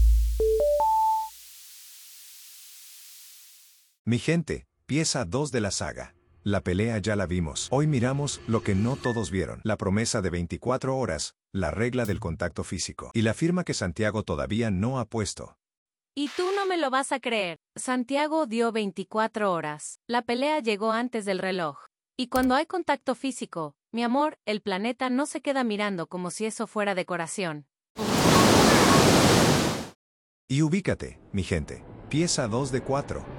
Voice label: voiceover; planeta alofoke